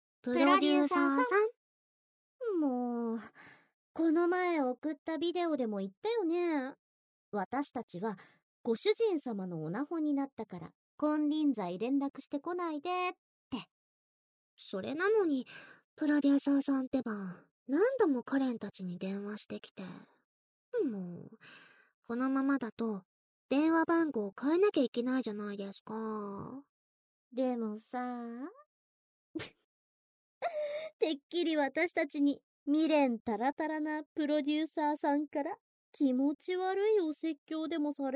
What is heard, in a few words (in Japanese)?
耳舐め ASMR, ASMR; ラスト耳舐め継続ver; 永久保存版（寝取られ視点）; SE無